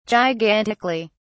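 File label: "gigantically", British English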